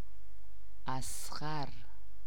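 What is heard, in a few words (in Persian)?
"اضغر", Persian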